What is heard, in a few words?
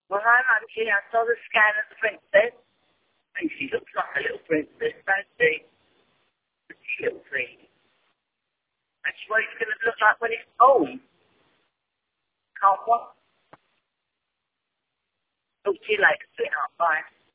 voicemail